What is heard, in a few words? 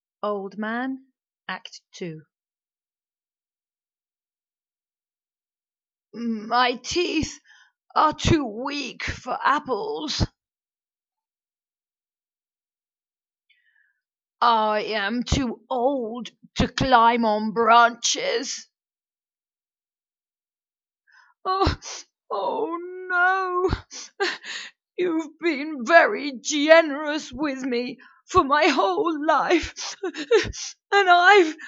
OLD MAN